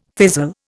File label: "fizzle", Indian